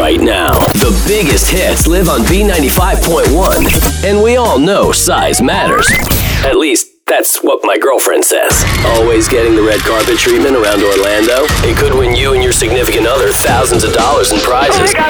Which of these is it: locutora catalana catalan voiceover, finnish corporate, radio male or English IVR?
radio male